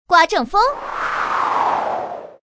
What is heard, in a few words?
woman wind